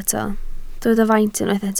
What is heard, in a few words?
"faint", Welsh